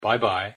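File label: pronunciation English "bye bye"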